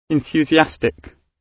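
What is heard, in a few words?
"enthusiastic", British English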